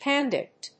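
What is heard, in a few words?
"pandect", English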